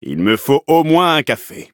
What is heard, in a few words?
levelup, fr; Cookie Run